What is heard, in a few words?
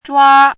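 "zhua1", Mandarin pinyin syllable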